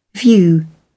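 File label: "view", British English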